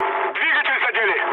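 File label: Russian damaged engine mood low, speech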